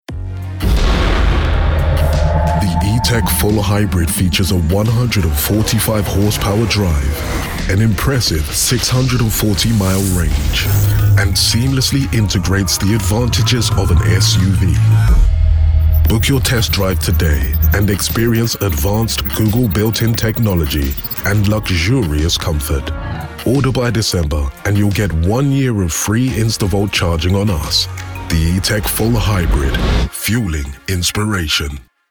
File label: Car, Smooth, Warm, Versatile, Resonant and Gravitas